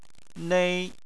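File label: "nei" first tone, Chinese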